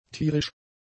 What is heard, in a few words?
"tierisch", German; adjectives